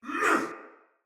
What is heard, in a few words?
Attack Heavy Soldier Male; Sinecure Soldier Male A